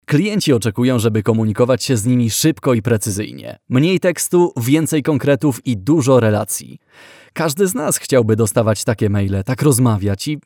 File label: e-learning; mbank